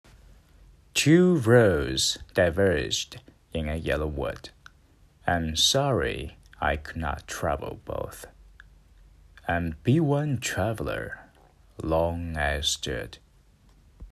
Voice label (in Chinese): 英配